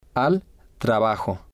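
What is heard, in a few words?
"al trabajo", spanish, male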